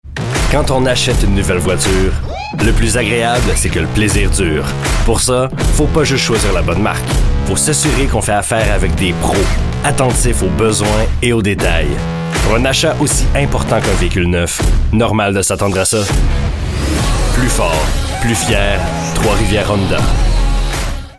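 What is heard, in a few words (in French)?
fr qc naturel annonceur affirme determine explicatif promo; trois rivieres honda; adulte grave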